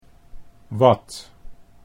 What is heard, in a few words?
"wat", Dutch